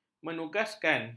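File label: "menugaskan", Malay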